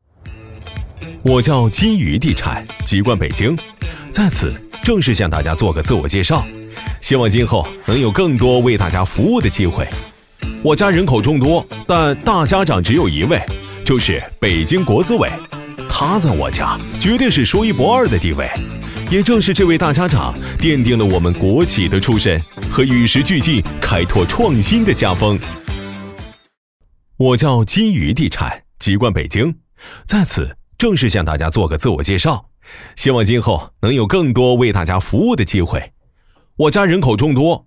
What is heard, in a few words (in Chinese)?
男 自述风格; 年轻浑厚 素人自然; 金隅地产